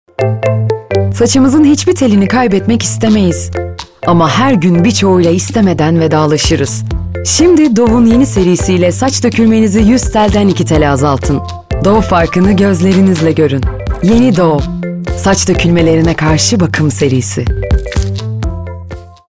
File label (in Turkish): reklam; dove